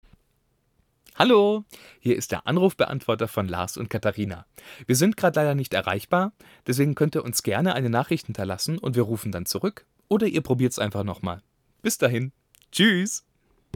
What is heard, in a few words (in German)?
Anrufbeantworter